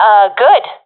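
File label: affirmation, professional female; vo; Counter Strike Model Pack; CS:GO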